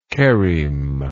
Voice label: "cheremic", English